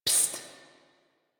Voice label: Student Whisper; Cinematics; Warframe; The New War; Zariman

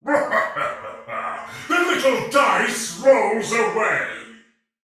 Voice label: Sight lost shout, male soldier; Dialog; Barks; Battle; Sinecure

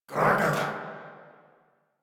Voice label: Banter; Warframe; Clem; en

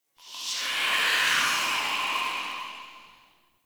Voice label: Amb Distant Wraith Whisper; Ambience; Mendicant Area

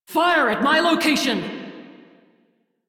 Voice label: Crew See Fire, Female; Dialog; en; Warframe; Railjack Crew; Steel Meridian

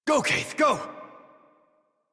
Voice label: Horse Sprint Adult; Warframe; Duviri

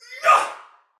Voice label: Attack Normal, Female, Soldier; Dialog; Barks; Battle; Sinecure

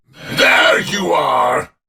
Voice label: Enemy Spot, Barks Battle; Warframe; Dax; Dax Knight

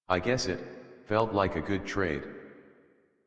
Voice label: Adult, en, Dialog; Cave; Warframe; Duviri Paradox Quest

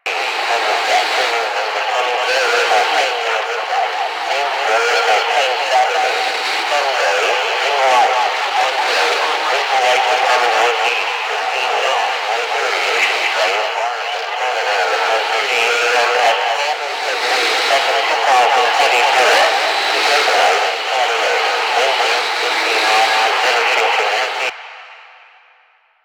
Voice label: Ambient Propaganda Loop; Warframe; Ambience; Nineteen Ninety Nine

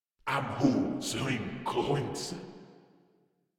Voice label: Patrol Grineer Male, Barks, Battle

